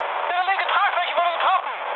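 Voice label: German left wing mood high, speech; War Thunder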